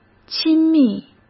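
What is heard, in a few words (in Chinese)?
"亲密", Mandarin Chinese; hsk; chinese-flashcards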